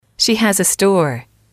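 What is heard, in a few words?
she has a store, female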